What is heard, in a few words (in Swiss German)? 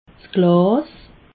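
"glas", Alsatian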